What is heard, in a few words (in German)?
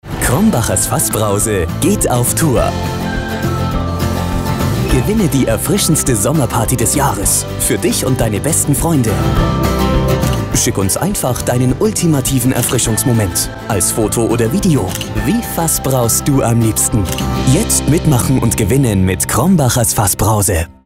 WERBUNG; Krombachers Fassbrause